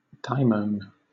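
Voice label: "daimon", British English; pronunciations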